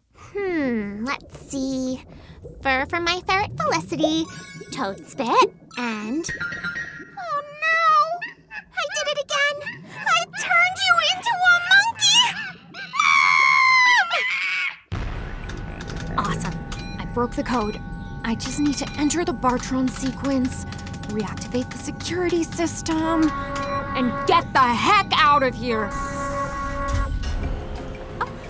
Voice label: animation